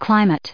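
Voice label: "climate", US English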